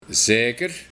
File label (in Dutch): "Zeiker", de Buiting; Zeer vervelend iemand